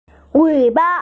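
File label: "tail", Mandarin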